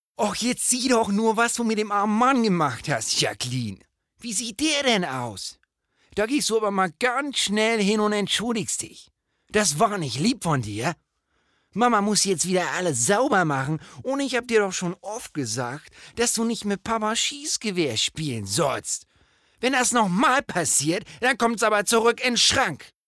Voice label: Dialekt Hamburger

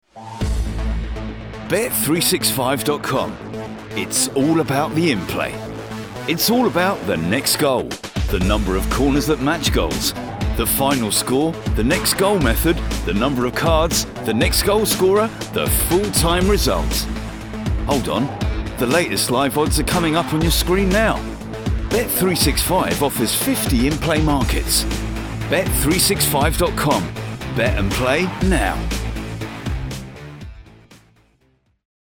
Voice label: Werbung

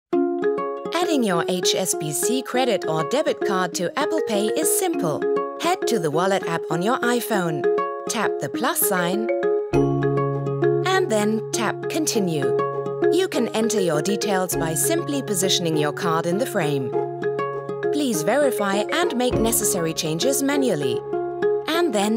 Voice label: ENG Erklärfilm; HSBC; Apple Pay

